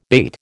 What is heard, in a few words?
"bate", American